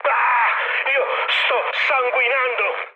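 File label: Italian damaged pilot mood high, speech; War Thunder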